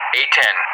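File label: "A-10", English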